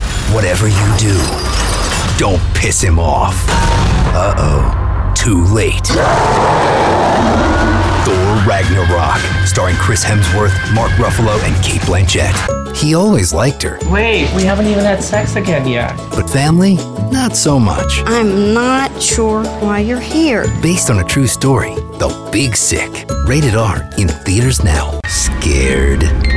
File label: Trailers